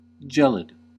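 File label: "gelid", American English; pronunciations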